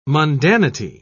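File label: "mundanity", British English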